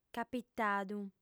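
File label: "capitadu", female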